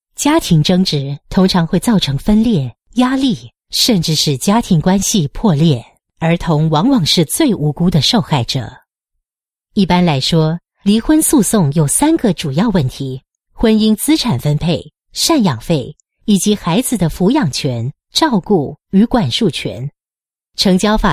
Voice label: Mandarin Corporate, Mandarin China Female; MCI Family Justice